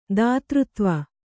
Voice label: "ದಾತೃತ್ವ", kannada